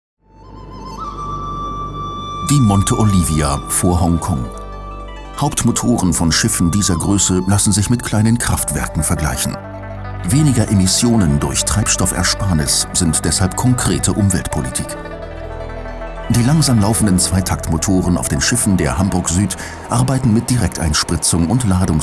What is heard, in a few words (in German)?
Neutral